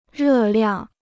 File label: "热量", Chinese